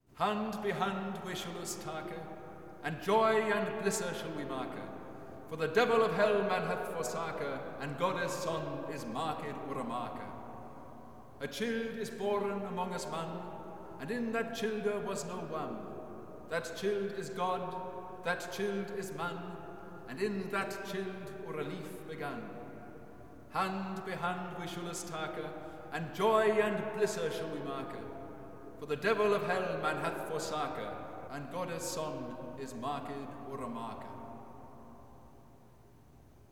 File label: reading; hand by hand we shule us take; Boston Camerata